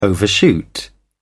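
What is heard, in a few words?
"overshoot", English, British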